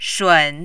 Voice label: "shun3", Mandarin

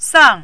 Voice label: "sang4", mandarin

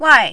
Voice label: "wai4", Mandarin

